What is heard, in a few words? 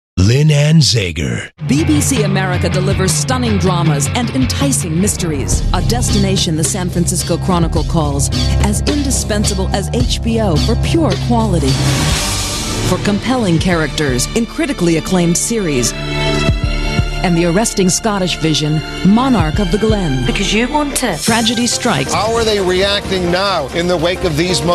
promo